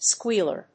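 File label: "squealer", English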